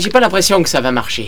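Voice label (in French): "fonctionner", French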